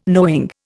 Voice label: "knowing", Indian

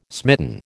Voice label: "smitten", American

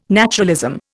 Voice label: "naturalism", Indian

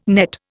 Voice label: "nett", Indian

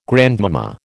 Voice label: "grandmama", American

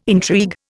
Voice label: "intrigue", Indian

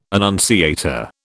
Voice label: "annunciator", British

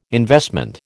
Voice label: "investment", American

